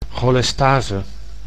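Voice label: "cholestase", Dutch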